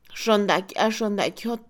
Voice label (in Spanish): Mixe, Mujer; alegre; Emociones; INALI